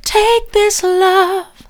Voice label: take this love soft; vocal